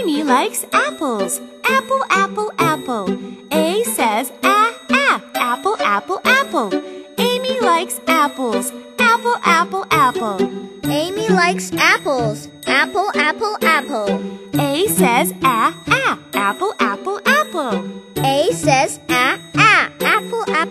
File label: phonics chant; short vowels